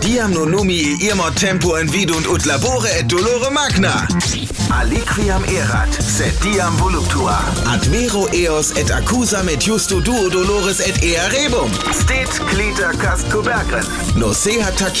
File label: lorem ipsum commercial